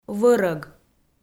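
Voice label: "вӧрӧг", Komi, female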